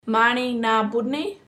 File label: marni naa pudni, kaurna pronunciation